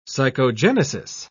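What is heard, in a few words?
"psychogenesis", British English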